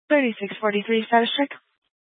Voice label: Police Dispatch, scanner

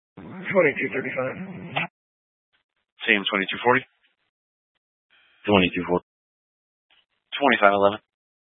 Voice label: Sheriff Dispatch; Mesa County

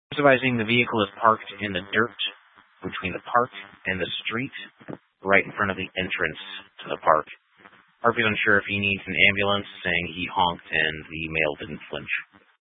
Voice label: Police Dispatch; Police 1 Dispatch

